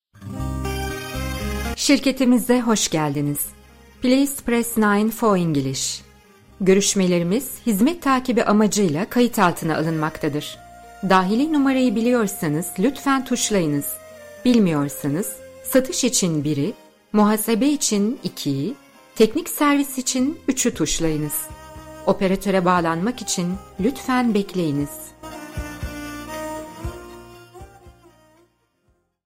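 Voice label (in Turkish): santral, Türkçe, Kadın Genç Yetişkin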